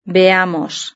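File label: "veamos", Spanish; verb-conjugator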